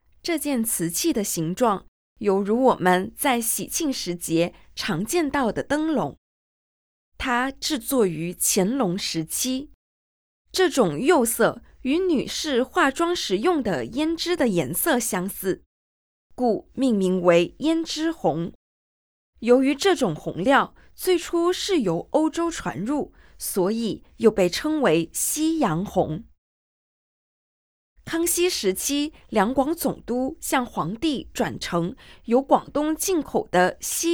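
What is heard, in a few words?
Mandarin, audio guide; architechure collection heritage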